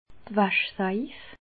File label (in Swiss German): "waschsaif", alsace